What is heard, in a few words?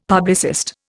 "publicist", Indian; Dictionary